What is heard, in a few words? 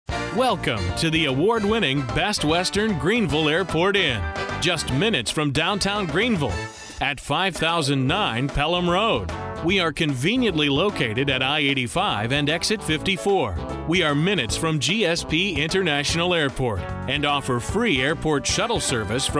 Phone Hold IVR Male